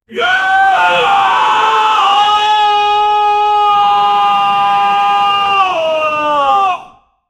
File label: angry man shouting